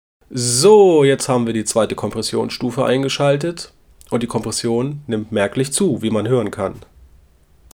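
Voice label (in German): stimme kompr; apogee hypemic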